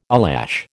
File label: "eyelash", American